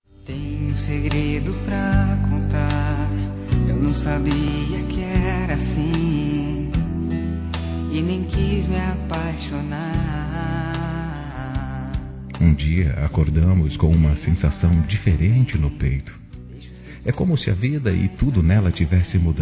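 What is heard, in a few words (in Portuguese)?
ROMANT. SUAVE, Telemensagens, Românticas masculino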